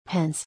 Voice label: "Pens", English